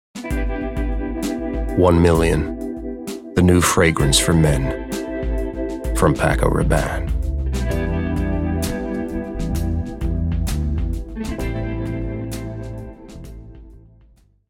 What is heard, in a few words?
Commercials, American; Paco Rabanne